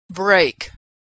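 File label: "break", American English; Homophones; brake break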